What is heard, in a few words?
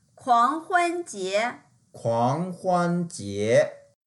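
"kuang2huan1jie2", Mandarin Chinese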